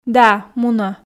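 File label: "да мунӧ", Komi, female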